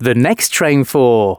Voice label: announcements male